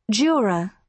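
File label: "juror", British English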